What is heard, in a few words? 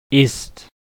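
"ist", German; klettres; tdeedu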